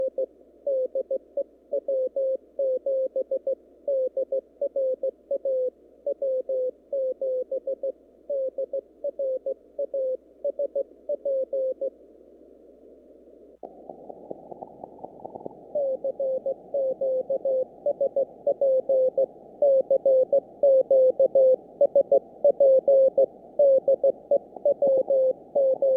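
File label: cq, 160m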